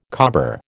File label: "cobber", American